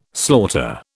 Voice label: "slaughter", British

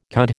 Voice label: "cud", American

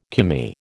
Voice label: "Commie", American

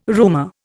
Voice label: "roomer", Indian

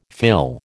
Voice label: "fill", American

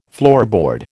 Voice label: "floorboard", American

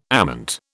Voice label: "ament", British

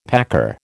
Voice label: "packer", American

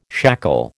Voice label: "shackle", American

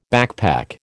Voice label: "backpack", American

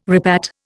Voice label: "repat", Indian

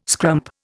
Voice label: "scrump", Indian

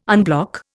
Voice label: "unblock", Indian

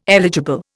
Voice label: "eligible", Indian

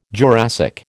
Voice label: "Jurassic", American

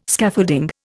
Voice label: "Scaffolding", Indian

